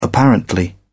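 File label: "apparently", British English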